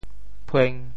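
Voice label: "phueng1", Teochew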